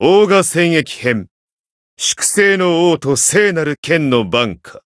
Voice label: title jp; majuou

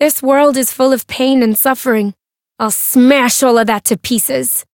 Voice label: voice story

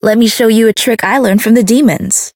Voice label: Voice chant; kikyou

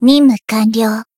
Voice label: voice jp; finish

